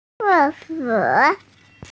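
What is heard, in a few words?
"ce4suo3", Mandarin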